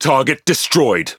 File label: Speech Pilots Torpedo Tangodown, EN GB; World Of Warships